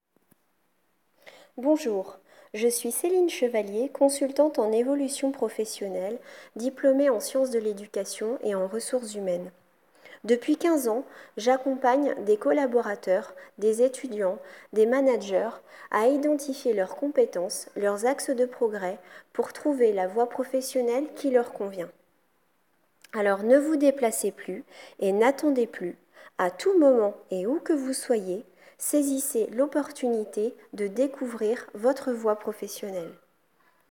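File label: hold down spot, female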